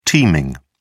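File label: "teaming", British English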